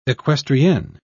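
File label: "equestrienne", British English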